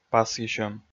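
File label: "пасйысьӧм", Komi, male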